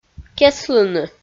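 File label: "кеслыны", Komi, female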